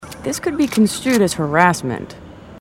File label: female marines